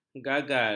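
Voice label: "gagal", Malay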